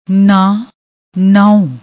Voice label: "nan", Punjabi